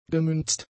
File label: "gemünzt", German; adjectives